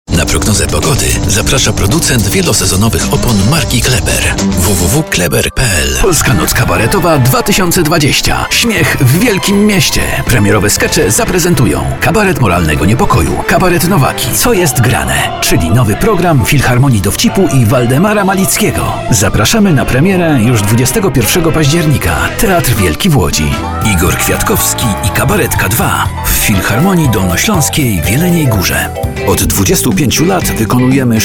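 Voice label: voice male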